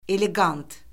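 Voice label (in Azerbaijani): "eleqant", Azerbaijani; Orfoqrafiya Lüğəti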